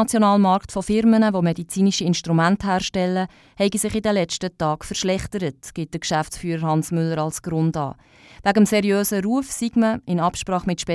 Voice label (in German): HEALTH SYSTEM Info Mundart